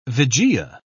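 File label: "vigia", British English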